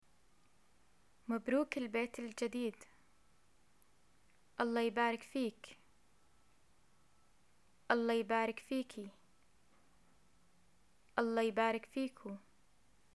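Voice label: greet new house, arabic, Jordanian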